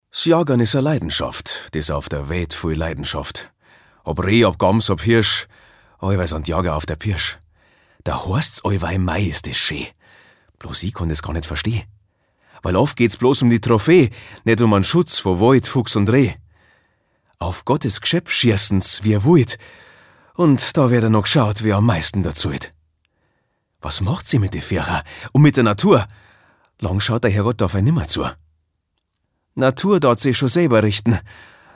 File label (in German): Dialekt Bayerisch